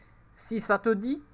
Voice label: Si ca te dit, French